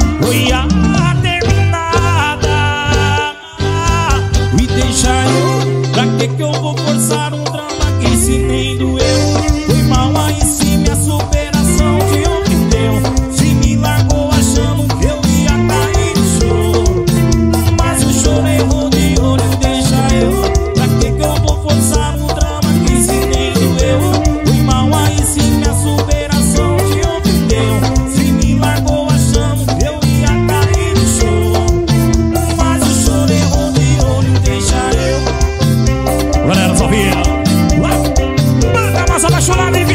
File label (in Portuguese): locutor a voz magnifica